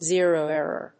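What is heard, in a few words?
"zero error", English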